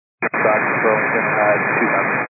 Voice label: 122 925MHz, scanner radio